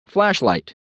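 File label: "flashlight", English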